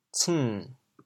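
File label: "ceng3", Teochew, chaoyang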